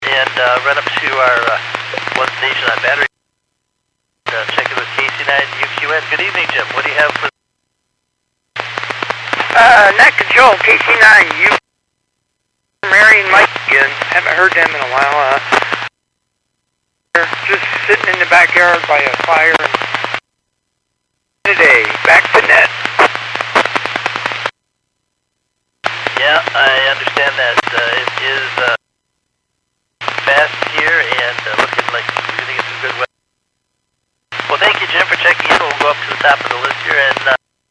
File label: Skywarn 146.67; Stevens Point